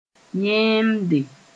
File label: "yẽemde", Mooré